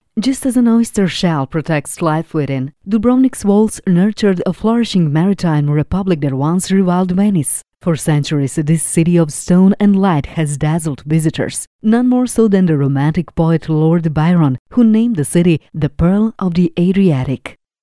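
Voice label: Serbian, female, English Sample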